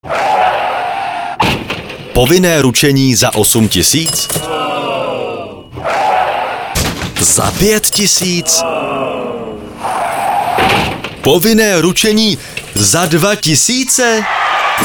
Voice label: Radio Spot; ePojisteni